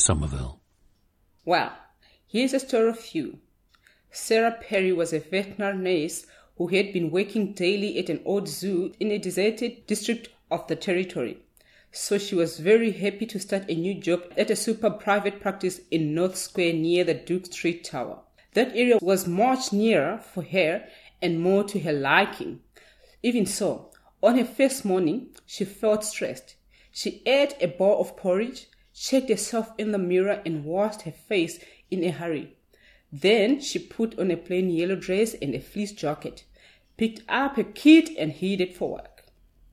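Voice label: zimbabwe, International Dialects of English Archive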